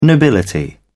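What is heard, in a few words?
"nobility", British English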